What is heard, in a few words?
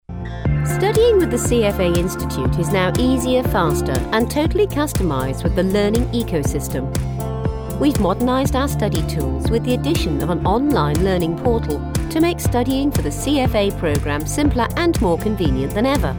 Explainer